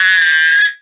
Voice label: super resonant vox like

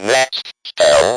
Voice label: speak and spell "NEXT SPELL"

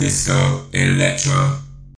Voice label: disco electro vocoded

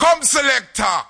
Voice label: come selectah, Rastafarian

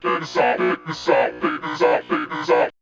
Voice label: confused robotic voice

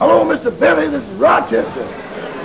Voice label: newsreel, Commercials and Radio; 1941

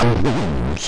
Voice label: ooo orange distort

